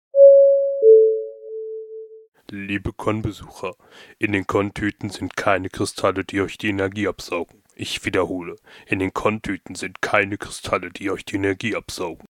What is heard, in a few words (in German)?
durchsage